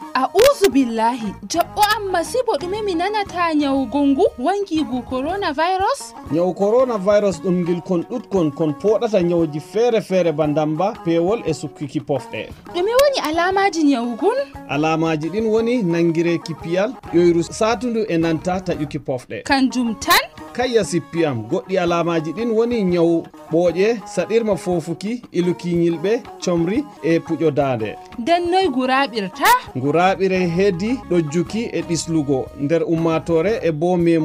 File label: Fulani